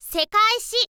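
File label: "sekaishi", Japanese, girl; voice; info girl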